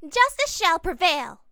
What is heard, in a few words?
angry; melody; maiko